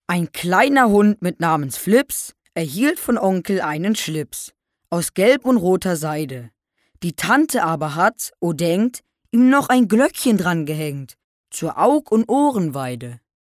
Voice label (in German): Poetisch; Fremdtake